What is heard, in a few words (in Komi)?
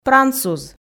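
"прансуз" Komi, female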